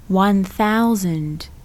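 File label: English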